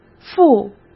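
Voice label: "负", Mandarin Chinese; chinese-flashcards; hsk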